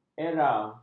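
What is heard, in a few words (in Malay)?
"era", Malay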